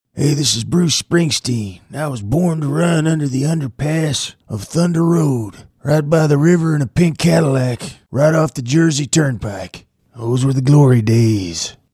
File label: impression; Bruce Springsteen